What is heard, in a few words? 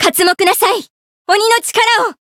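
encounter, jp; tsukiha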